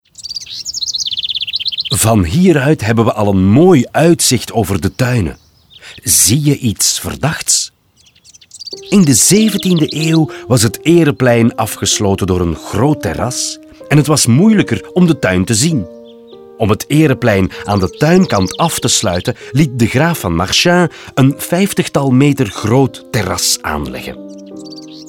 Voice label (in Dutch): audioguide nl enfant; jardin; modave